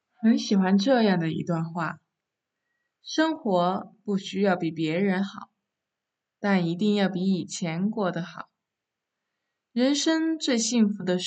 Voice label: 旁白; 努力要趁早

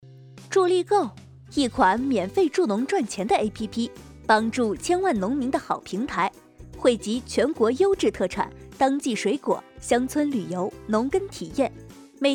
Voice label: 地摊广告试音

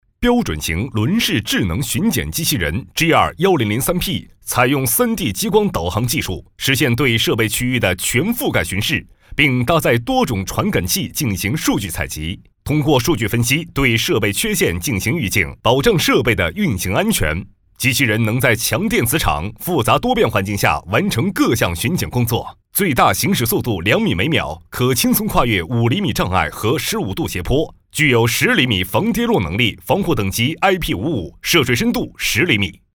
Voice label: 科技类宣传片

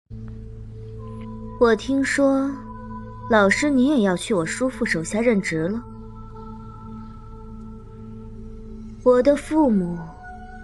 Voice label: 有声漫画; 长歌行

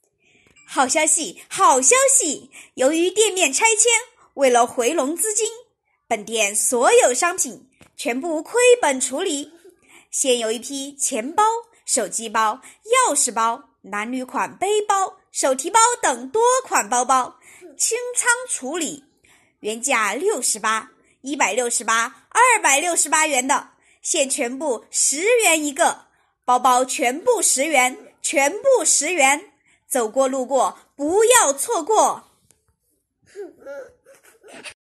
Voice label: 促销广告类声展

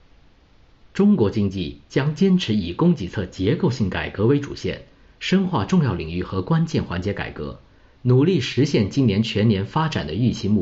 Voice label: 新闻类

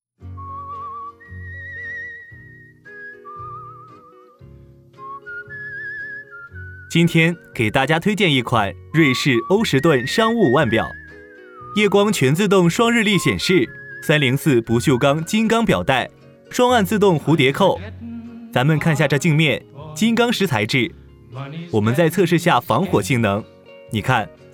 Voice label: 产品介绍

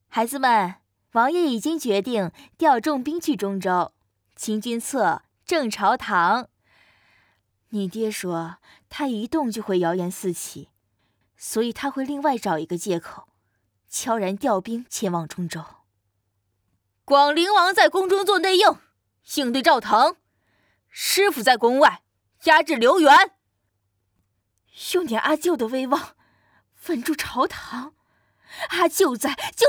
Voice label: 阿姨长辈

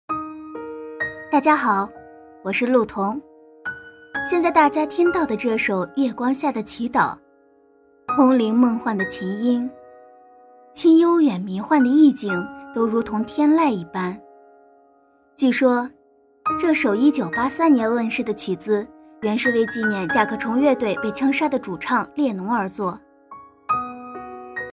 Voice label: 情感电台

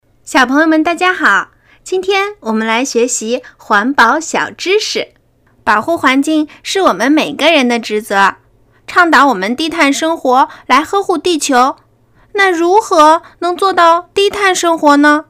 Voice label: 绘本故事环保小知识

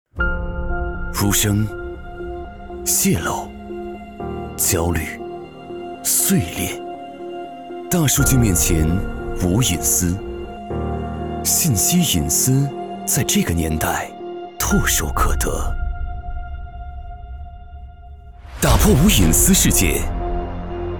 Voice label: 安全社交男

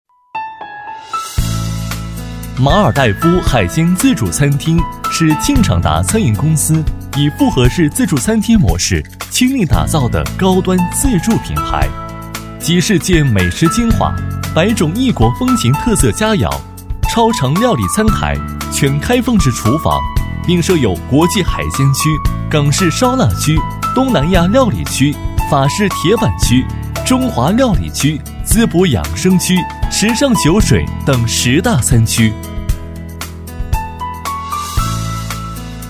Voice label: 自助餐厅轻松广告

